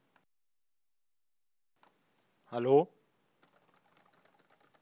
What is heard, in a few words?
headset audio jabra biz 2400